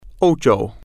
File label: "ocho", spanish